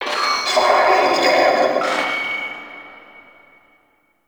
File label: Vocal Quest Loudspeaker, Town Escape; Warframe; Duviri; Ambience